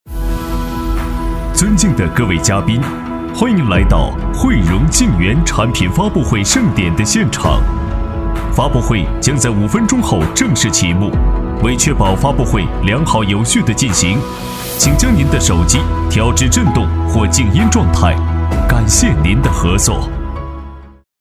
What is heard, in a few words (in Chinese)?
男国 专题 产品 发布会; 上海汇融静园发布会